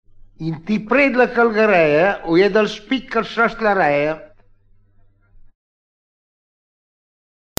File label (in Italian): scioglilingua, romagna